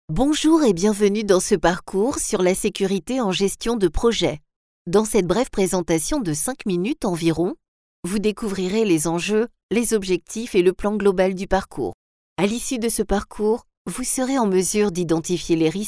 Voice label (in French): Voix Femme, voix off